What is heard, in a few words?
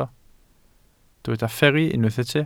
"fferru", Welsh